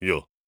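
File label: "yes", voice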